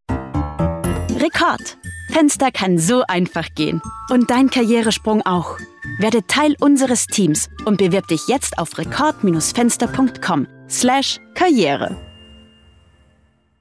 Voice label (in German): Werbung